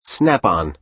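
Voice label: "snap-on", American English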